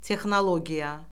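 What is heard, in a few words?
"texnologiya", Azerbaijani; Orfoqrafiya Lüğəti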